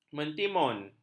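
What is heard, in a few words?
"mentimun", Malay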